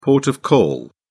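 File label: "port of call", British English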